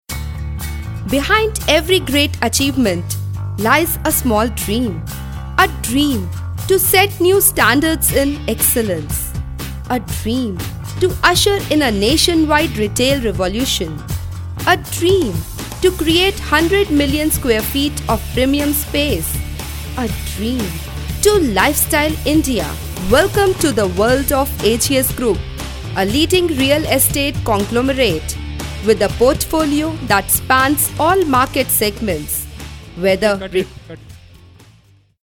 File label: eng, delhi; female voice